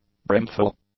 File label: "brimful", English; adjectives